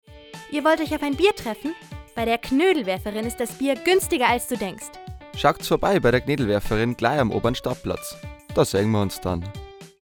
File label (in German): Werbung Reminder